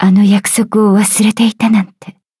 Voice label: levelup ja; cookie run